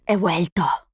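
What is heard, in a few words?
"He vuelto", Spanish; Сомбра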